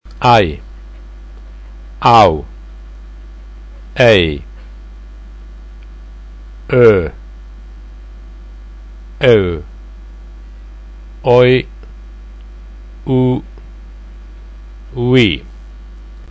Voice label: diphthongs, pronunciation